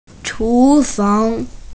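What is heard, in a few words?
"chu2fang2", Mandarin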